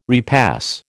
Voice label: "repass", American